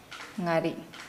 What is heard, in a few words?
Nukuoro; take a bite of